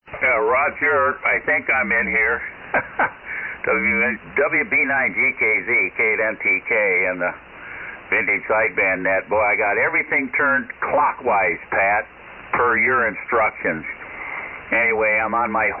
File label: Collins 32S-1 75S-1 SB-200 Amp; Empire,MI.